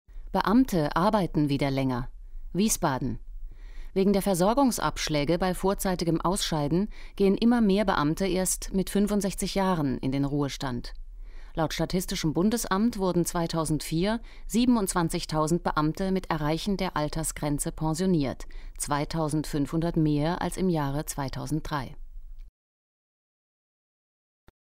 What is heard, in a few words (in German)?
Nachrichten